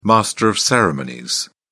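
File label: "master of ceremonies", British English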